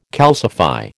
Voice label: "calcify", American